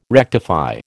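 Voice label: "rectify", American English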